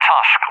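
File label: "Tusk", English, UK; CallSign; DCS; Allied Flight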